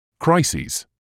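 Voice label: "crises", British English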